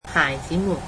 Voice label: "children", cantonese